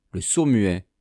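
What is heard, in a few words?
"le sourd muet", French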